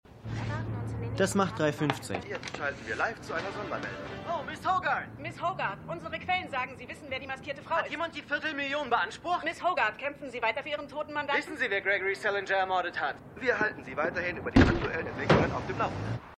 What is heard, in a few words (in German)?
TV Sprecherin Verkaeufer TV Sprecher Reporter Reporterin; Jessica Jones